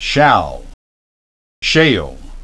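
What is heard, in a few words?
"shall" "shale", English pronunciation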